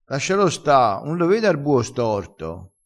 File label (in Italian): "ave r buo", crespinese